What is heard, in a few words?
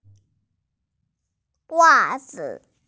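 "wa zi" Chinese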